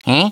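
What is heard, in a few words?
"huh", male; DS; ML BOWSERS INSIDE; Luigi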